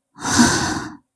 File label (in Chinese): 语气词 难过; 灵魂潮汐克拉丽斯技能